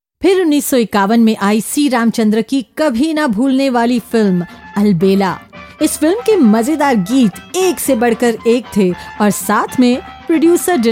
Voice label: voice over